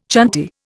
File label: "chanty", Indian